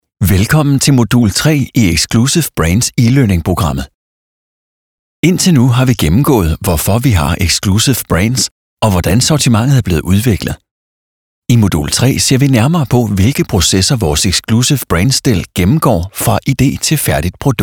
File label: E-LEARNING